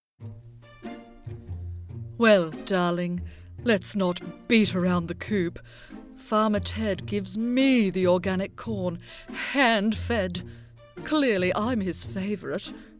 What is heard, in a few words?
Hens, character voices